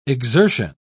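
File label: "exertion", English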